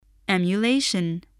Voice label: "emulation", spelling